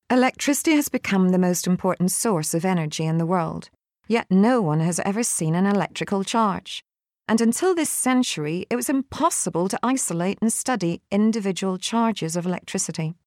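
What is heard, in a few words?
Northern Irish female e-learning; accent of ireland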